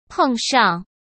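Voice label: "碰上", Mandarin Chinese; chinese flashcards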